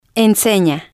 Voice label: "ensena", spanish